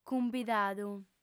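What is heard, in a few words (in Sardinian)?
"cumbidadu", female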